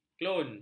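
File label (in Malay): "klon", Malay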